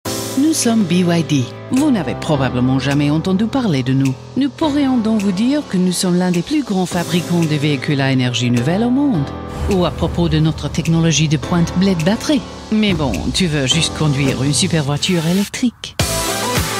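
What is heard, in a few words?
French national tv ad, female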